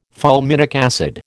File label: "fulminic acid", American